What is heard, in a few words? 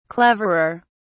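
"cleverer", British English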